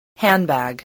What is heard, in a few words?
"handbag", spelling